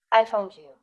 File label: Voice Acting es F